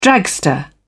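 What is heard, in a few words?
"dragster", British English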